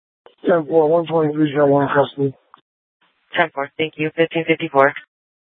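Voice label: Sheriff, Parks, Sheriff radio scanner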